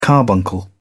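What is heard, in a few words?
"carbuncle", British English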